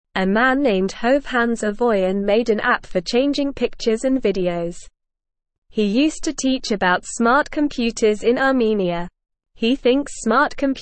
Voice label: English NORMAL Reading; Man Makes App to Change Pictures and Videos